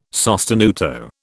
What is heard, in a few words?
"sostenuto", British